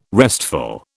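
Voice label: "restful", British English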